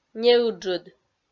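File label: "неыджыд", Komi, female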